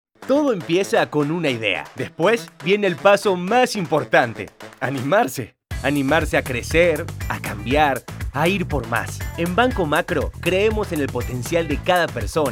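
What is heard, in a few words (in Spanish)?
energico institucional, espanol argentina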